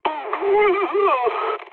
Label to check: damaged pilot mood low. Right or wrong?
Right